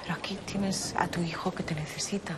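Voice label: Voice Acting es Female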